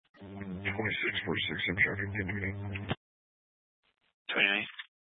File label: Mesa County Sheriff Dispatch